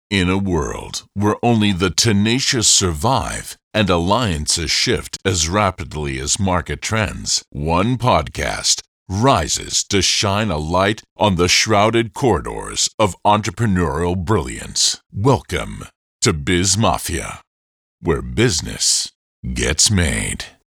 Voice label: podcast intro, Deep Male Voice; Podcasting

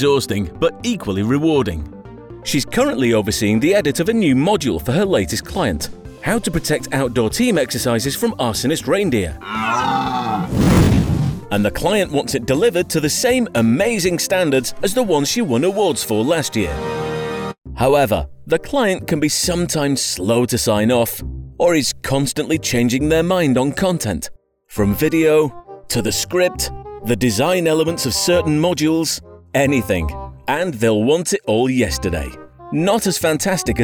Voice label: E-Learning

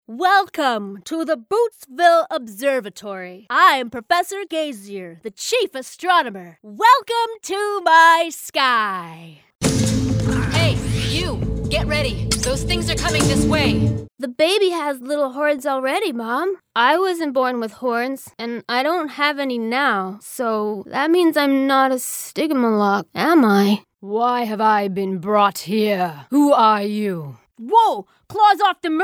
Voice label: Animation

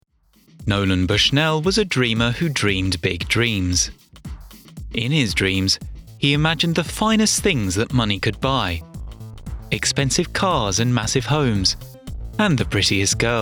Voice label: ENG doc

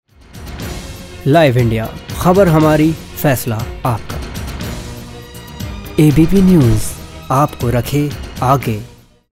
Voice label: News

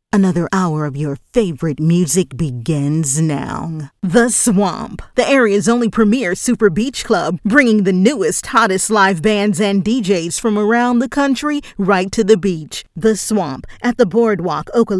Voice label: Radio Imaging

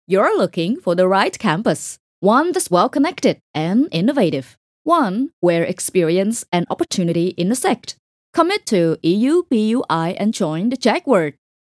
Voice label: Confident Edu Ad

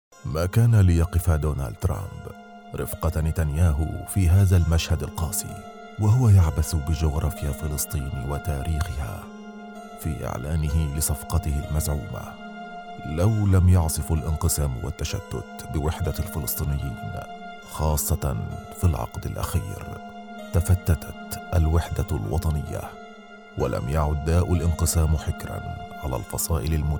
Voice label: News Documentary

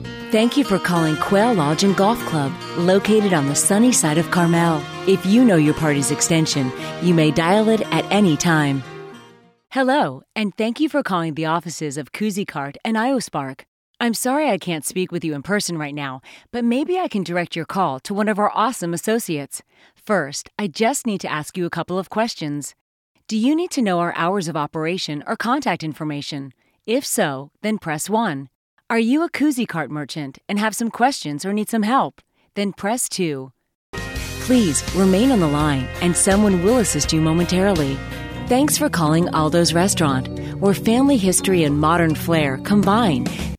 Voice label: On Hold

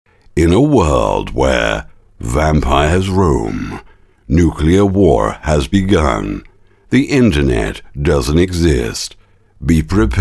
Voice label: movie trailer style UK voiceover

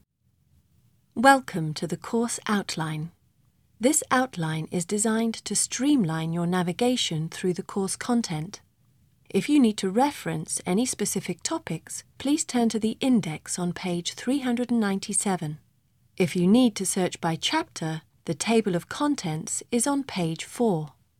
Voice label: E Learning

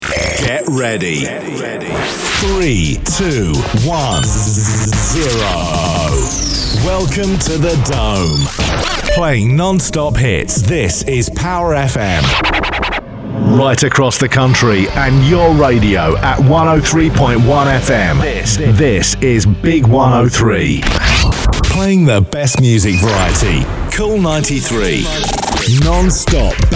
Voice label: Imaging

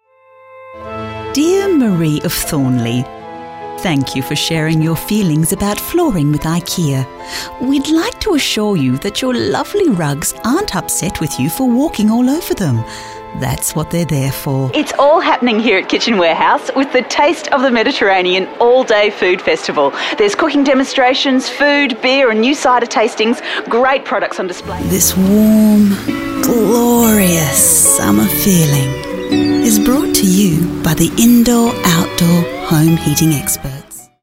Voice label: Character

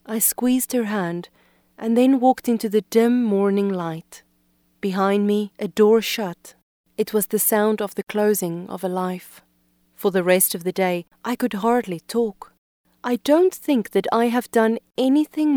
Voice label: Short Narration

